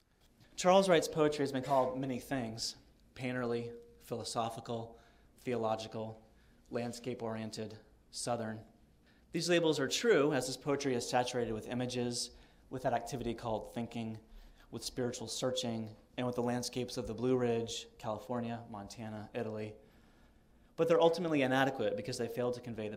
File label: reading